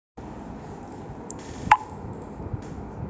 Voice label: voice message